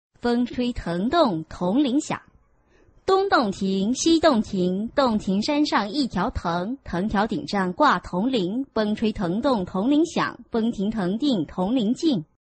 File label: chinese tongue twister